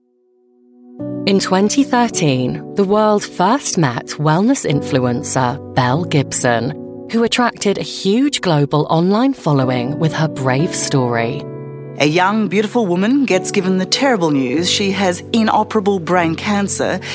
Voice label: documentary